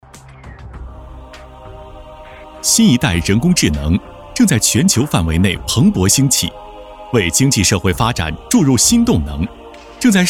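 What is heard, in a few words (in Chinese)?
国语 男声 宣传片 科技感 大气浑厚磁性 沉稳; AI人工智能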